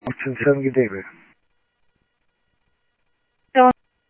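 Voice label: Lakes Sheriff Lake Patrol, scanner audio trunk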